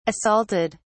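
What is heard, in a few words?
"assaulted", British English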